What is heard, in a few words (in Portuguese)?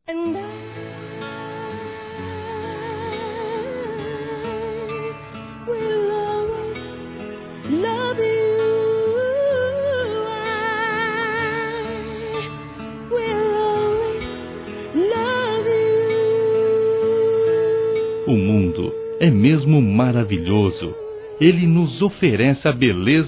Pedido de Casamento, Masc; Telemensagens; Telemensagens 2017